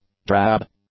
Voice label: "drab", English; adjectives